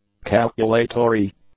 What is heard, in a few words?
"calculatory", English; adjectives